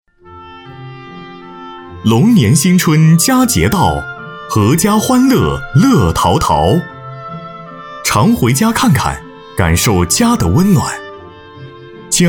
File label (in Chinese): 晚会主持 春节 温暖大气, 男; 大气主流; 年轻舒缓